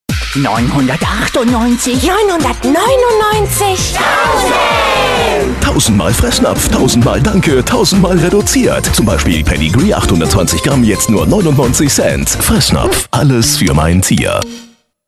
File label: TV-Spot